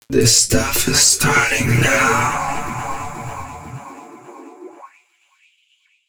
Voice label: male a#128, spoken hooks; Mutekki Media; Dance Ultra Voice VOL-1